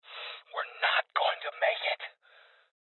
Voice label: Voices Heavy Losses Male; rebel cops 2019

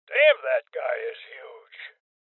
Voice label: Voices Heavy Spotted Male Old; Rebel Cops

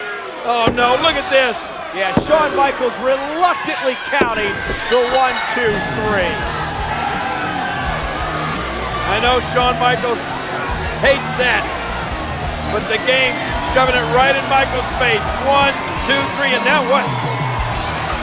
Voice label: english us; WWE 2K15; PlayStation 3

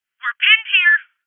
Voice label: Voices HeavyLosses Female

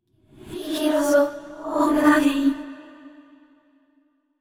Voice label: sad; yamiko

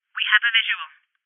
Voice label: Voices Enemy Spotted Female

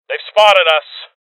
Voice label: Voices Alarm State Male; rebel cops 2019 gamerip

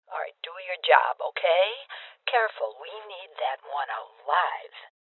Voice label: Voices Boss Spotted Female Old; rebel cops 2019 gamerip

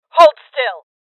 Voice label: Voices Freeze Female; rebel cops 2019 gamerip

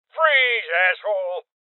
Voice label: Voices Freeze Male Old

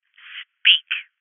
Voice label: Voices Interrogate Female

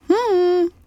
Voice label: Emote Female Hello

